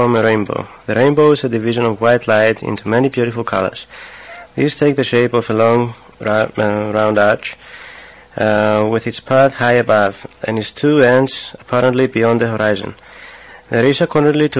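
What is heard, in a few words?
cyprus, International Dialects of English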